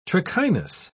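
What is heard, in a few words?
"trichinous", British English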